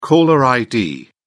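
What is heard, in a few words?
"caller id", British English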